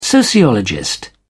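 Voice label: "sociologist", British English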